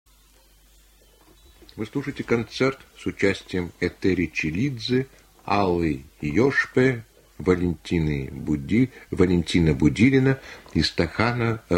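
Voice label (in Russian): golos diktora, announcer's voice, male; Радио "Маяк"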